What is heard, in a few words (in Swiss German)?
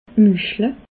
"muschle", alsace